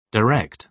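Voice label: "direct", British English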